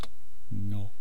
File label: "nwaa", east cree syllabics